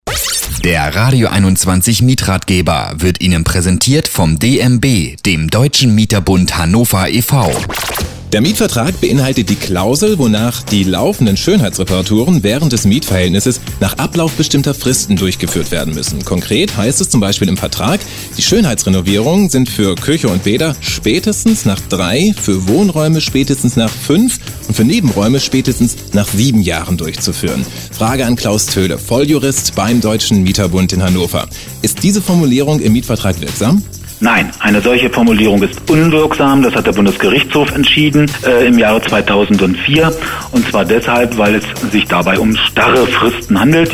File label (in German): Radiospot; Radio21